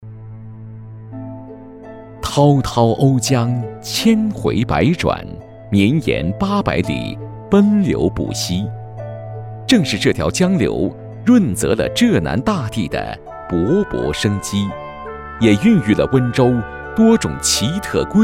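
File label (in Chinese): 男【旁白】; 文化历史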